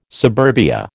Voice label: "suburbia", American

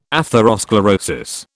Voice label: "atherosclerosis", British

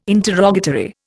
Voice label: "interrogatory", Indian

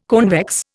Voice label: "convex", Indian

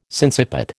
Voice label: "sinciput", American

